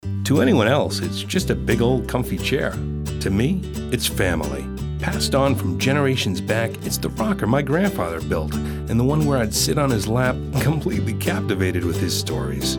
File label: VO Male